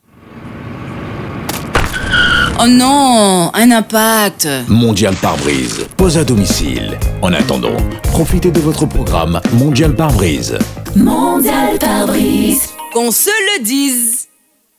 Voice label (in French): spot pub; mondial pare brise; martinique